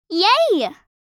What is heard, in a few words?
Teen girl excited yay; misc human sound effects